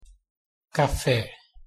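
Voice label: "café", Portuguese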